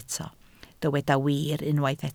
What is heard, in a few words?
"wir", Welsh